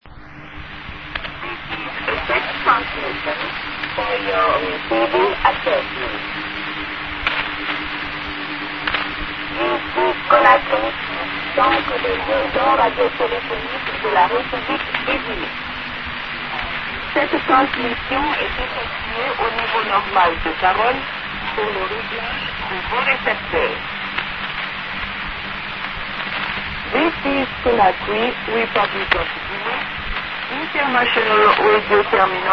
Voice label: usb